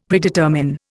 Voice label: "Predetermine", Indian; Dictionary